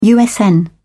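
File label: "usn", British English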